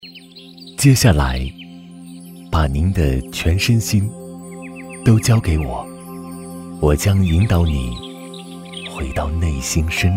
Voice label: 男 专题 瑜伽 温柔舒缓

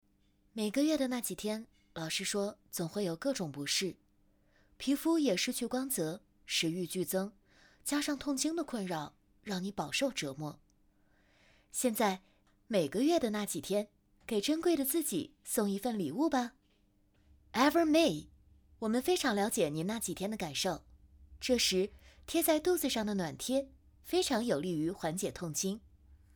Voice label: 女 英语广告 女性用品; Evermay

